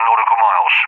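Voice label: "Nautical miles", English, UK; DCS; Allied Flight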